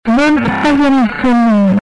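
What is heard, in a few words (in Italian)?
"avanza", Italian; Avanza luce, neh!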